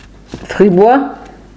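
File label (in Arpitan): greverin, Franco-Provençal, Fribourg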